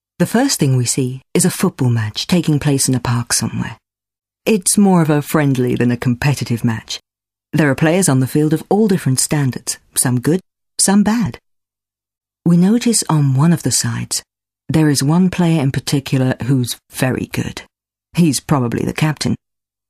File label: Narrative; Fruitshoot